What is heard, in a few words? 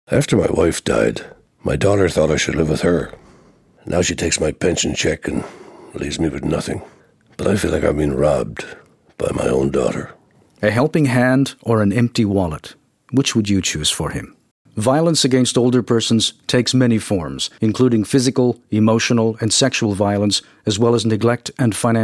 radio ad; financial